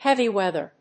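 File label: "Heavy Weather", English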